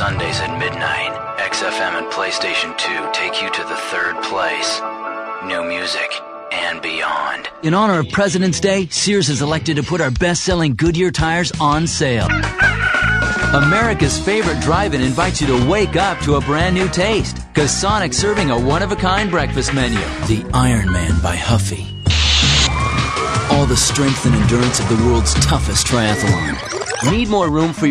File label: American Male